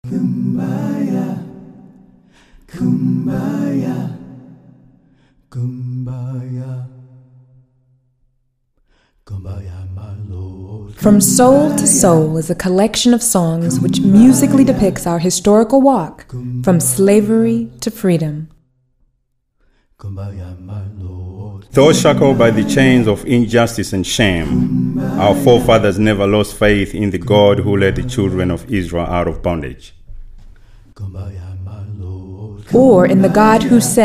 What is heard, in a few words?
Opening Narration; One Accord